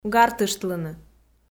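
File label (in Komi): "гартыштлыны", Komi, female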